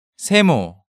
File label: "Semo", Korean